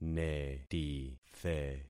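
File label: "netithe", concatenated syllables; Affixing experiment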